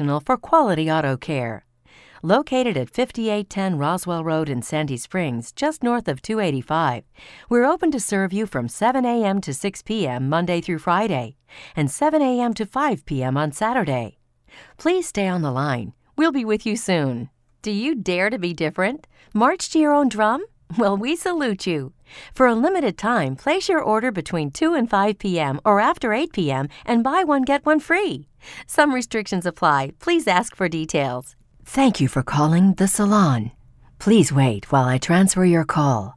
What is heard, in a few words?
on hold